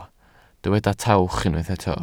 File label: "tawch", Welsh